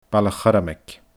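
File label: "Baile Chormaig", Scottish Gaelic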